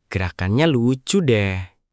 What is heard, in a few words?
indonesia, indonesian negative phrases